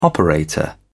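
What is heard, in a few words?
"operator", British English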